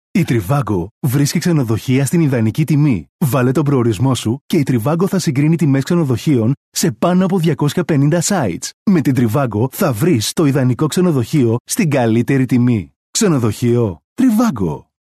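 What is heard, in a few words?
Greek, male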